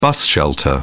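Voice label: "bus shelter", British English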